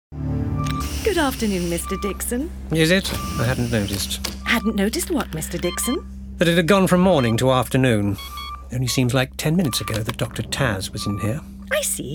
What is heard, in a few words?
Audiodrama; Trigonometry